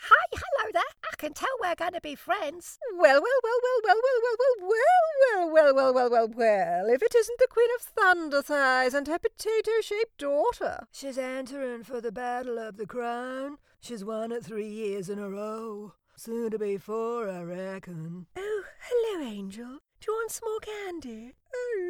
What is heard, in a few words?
Animation